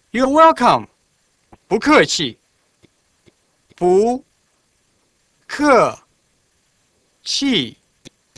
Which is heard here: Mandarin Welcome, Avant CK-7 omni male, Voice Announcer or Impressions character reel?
Mandarin Welcome